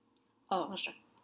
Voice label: "orge", French; barley

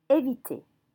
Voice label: "eviter", French

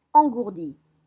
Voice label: "Engourdie", French

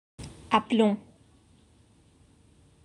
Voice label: "aplomb", French

